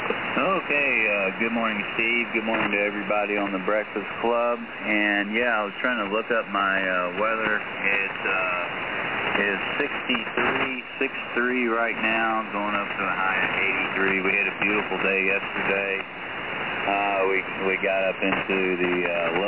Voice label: SM 50 Mic Comp 3 TBW Wide Bass 2 Treble 4 Low